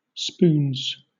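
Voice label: "spoons", British English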